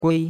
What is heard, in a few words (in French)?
"gui1", chinois; Cours-de-Chinois